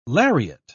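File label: "lariat", British English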